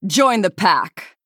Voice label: Female Night Elf Play